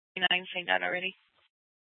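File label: Scottsdale PD North Dispatch; Nth Dispatch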